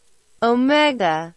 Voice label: "Omega" Pronunciation